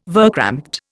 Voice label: "verkrampte", Indian